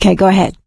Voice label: lead on, survivor voice; source engine; left 4 dead 2; producer